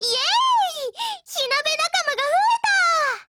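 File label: COMBINE, Japanese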